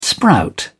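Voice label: "sprout", English, British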